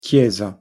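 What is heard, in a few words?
"chiesa", pronunciation Italian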